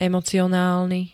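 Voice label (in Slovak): "emocionálny", Slovak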